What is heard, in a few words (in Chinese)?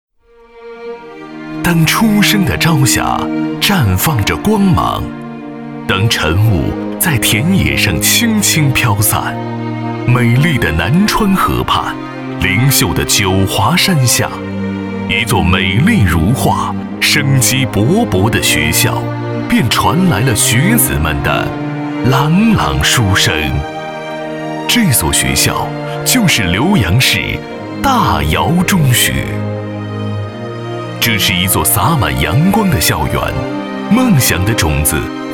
专题 学校 舒缓, 男国; 浏阳大窑中学